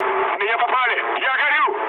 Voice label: on fire, mood med, speech ru; War Thunder

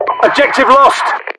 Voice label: lost obj, localized english, UK voiceovers; mpvoice

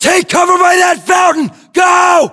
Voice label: order cover fountain, US

